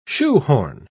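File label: "shoehorn", English word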